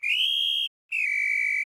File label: Emote Voice; Winky